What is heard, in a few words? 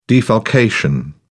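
"defalcation", British English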